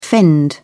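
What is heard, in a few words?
"fend", English, British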